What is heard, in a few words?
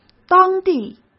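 "当地" Mandarin Chinese; chinese flashcards; hsk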